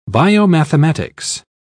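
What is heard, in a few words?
"biomathematics", British English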